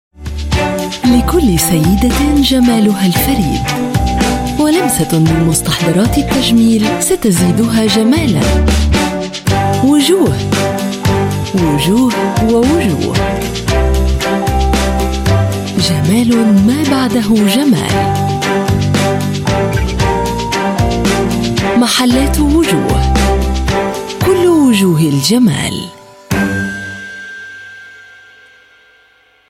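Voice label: Arabic TVC Female; Faces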